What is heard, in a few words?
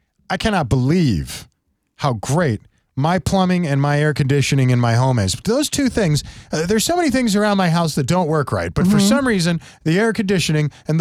RADIO AD; nicks plumbing radio ads